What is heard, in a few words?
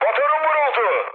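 Turkish damaged engine, mood low, speech; War Thunder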